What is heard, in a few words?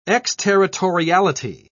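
"exterritoriality", British English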